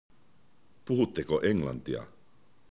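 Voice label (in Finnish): "englanti", Finnish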